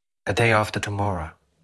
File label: Voice Acting, es